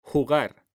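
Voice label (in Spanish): "jugar", Spanish, male; mekanta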